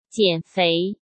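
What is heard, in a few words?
"减肥", Mandarin Chinese; chinese flashcards; hsk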